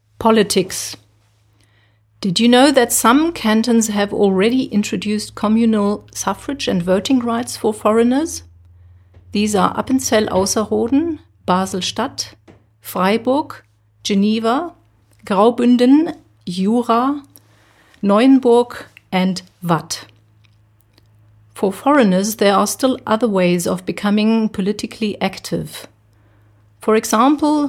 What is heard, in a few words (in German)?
engl